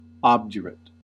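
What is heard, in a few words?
"obdurate", US English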